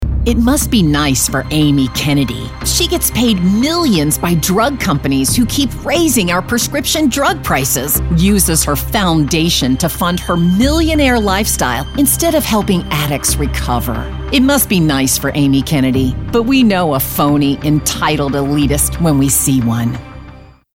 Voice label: Political Negative